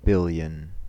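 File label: "billion", American English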